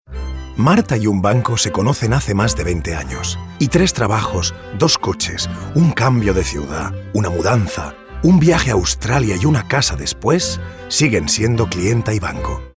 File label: spanish bank comercial; ideasonora